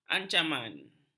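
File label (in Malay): "ancaman", Malay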